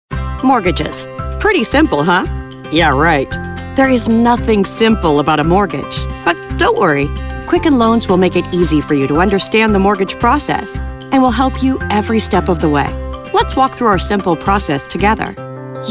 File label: 30s 40s Female Narration